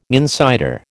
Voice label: "insider", American

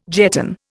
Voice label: "jetton", Indian

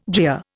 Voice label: "jeer", Indian

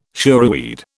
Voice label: "shoreweed", British

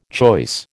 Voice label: "choice", American

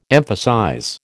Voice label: "emphasize", American

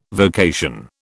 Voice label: "vocation", British

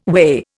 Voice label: "whey", Indian

